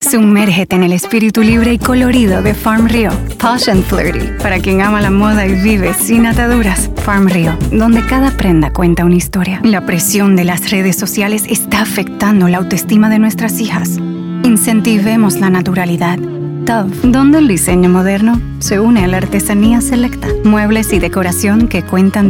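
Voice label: Latin American Spanish, Commercial; GoLocalise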